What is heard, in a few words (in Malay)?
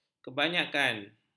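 "kebanyakan", Malay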